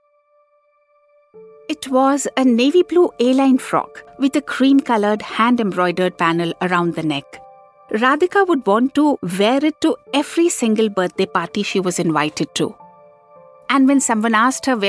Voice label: Story (English)